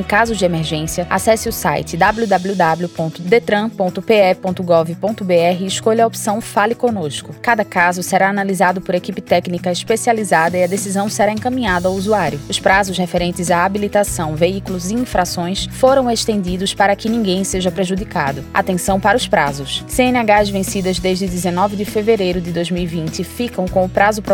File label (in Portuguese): spot Covid; Detran